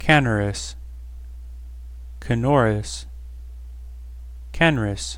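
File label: "canorous" English, American accent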